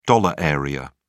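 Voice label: "dollar area", British English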